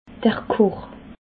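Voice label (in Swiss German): "koch", Bas-Rhin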